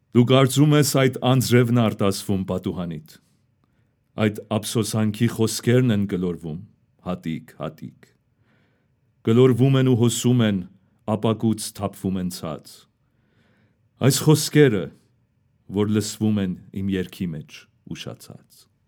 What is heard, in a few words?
armenian commercial; Armenian Voice Actor; Farsi/Persian, English, Turkish and Armenian Voice Actor